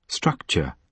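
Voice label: "structure", British English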